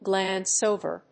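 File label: "glance-over", English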